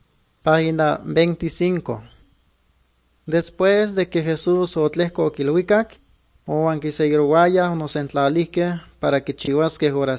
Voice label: good news, nahuatl guerrero chilapa; guerrero nahuatl the bible league nt drama

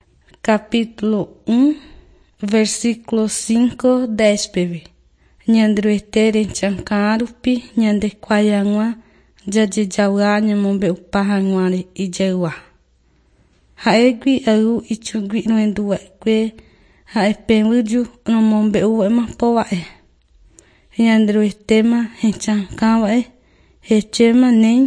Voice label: john guarani mbya, bible

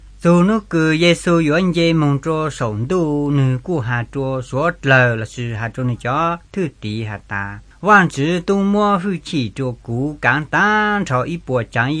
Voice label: creation to christ hmong blue

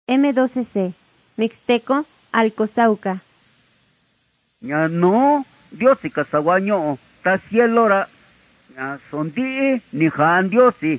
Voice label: mixtec diagnostic spanish mexico

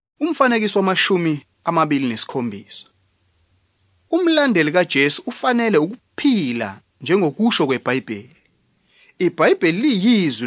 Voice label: zulu bible; good news

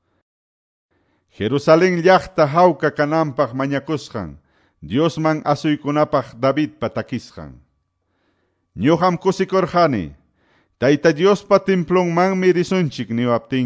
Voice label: salmos, quechua ayacucho

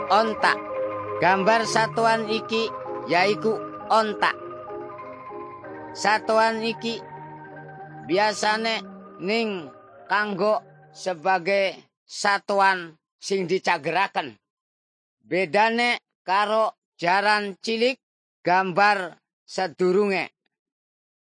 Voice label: indramayu lajer; parables about animals; javanese; bible

